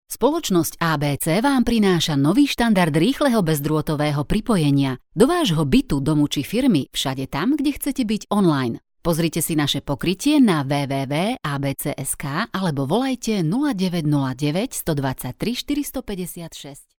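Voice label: Slovak, female, commercial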